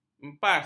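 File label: "mepas", Malay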